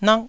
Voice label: "nak4", taiwanese